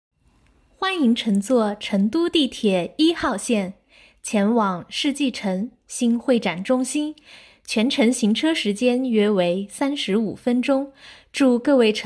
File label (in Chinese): 地铁配音; 成都地铁